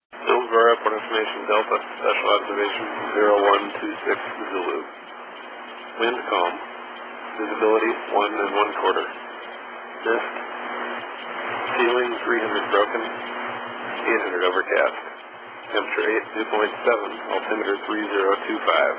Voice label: ATIS, USA; HIO